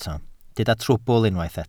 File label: "trwbl", Welsh